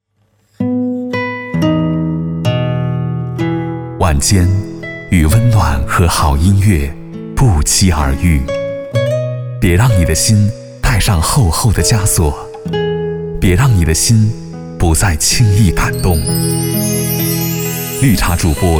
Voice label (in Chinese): 男 宣传片 片头